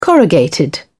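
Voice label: "corrugated", British English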